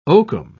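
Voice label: "oakum", English, British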